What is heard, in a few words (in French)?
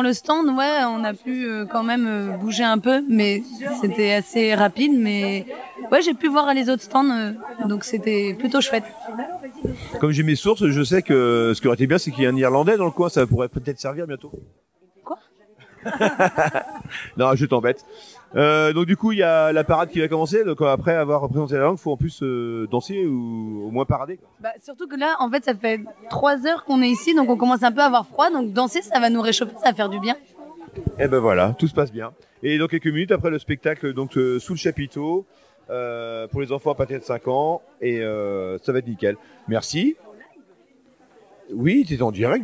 breton, l'archipel des langues; breskebil